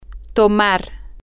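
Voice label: to drink, Spanish, female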